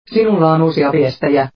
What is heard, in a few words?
Mail Finnish